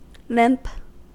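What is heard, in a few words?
"limp", American English; pronunciations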